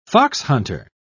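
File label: "foxhunter", English, British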